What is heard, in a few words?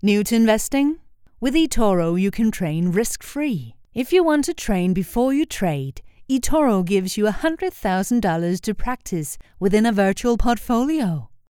commercial english; ETORO